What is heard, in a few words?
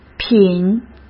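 "品", Mandarin Chinese; hsk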